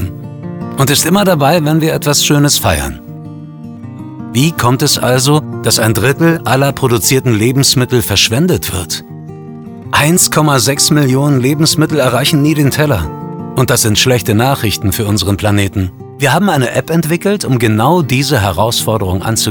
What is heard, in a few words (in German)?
Full Mix Essen, German